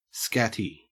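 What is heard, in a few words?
"scatty", Australian English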